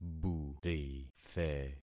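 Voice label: "burithe", concatenated syllables; Affixing experiment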